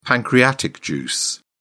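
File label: "pancreatic juice", British English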